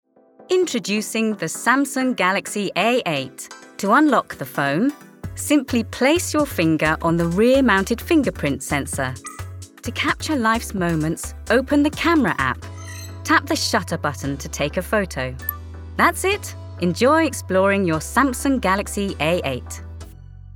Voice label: Narration EXPLAINER